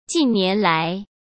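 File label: "近年来", Mandarin Chinese; chinese flashcards; hsk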